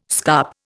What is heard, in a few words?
"scup", Indian